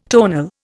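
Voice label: "tonality", Indian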